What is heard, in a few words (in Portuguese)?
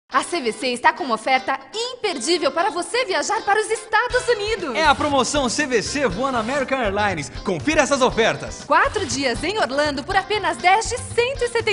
comercial